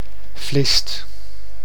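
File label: "Vlist", Dutch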